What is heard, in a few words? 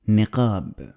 "Niqab", Arabic